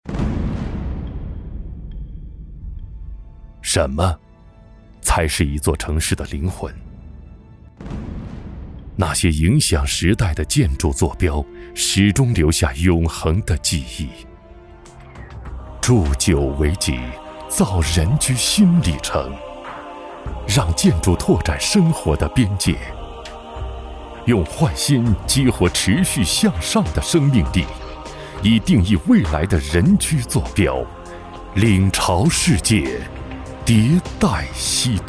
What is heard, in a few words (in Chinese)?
男 广告 高端地产